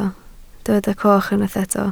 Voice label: "coch", Welsh

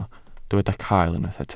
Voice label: "cael", Welsh

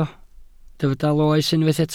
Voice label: "loes", Welsh

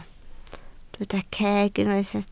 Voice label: "ceg", Welsh

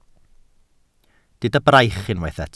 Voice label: "braich", Welsh

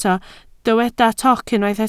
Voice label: "toc", Welsh

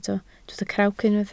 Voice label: "crawc", Welsh

